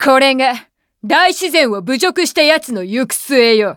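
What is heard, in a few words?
victory, Japanese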